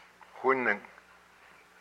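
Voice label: "my face", Hupa, male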